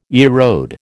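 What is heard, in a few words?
"erode", American English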